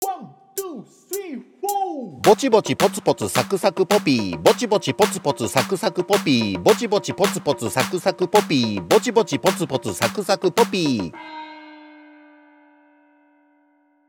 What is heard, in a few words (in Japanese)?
早口言葉リズム（中級編）